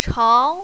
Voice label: "chao2", mandarin, female

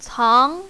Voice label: "cang2", mandarin, female

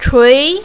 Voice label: "chui2", mandarin, female

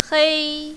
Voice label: "hei1", mandarin, female

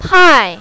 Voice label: "pai4", mandarin, female

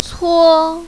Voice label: "cuo1", mandarin, female

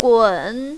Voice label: "gun3", mandarin, female

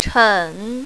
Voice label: "chen3", mandarin, female

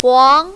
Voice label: "huang2", mandarin, female

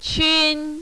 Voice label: "qun1", mandarin, female

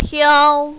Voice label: "tiao1", mandarin, female

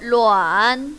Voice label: "luan3", mandarin, female

